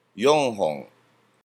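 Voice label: "yonhon", Japanese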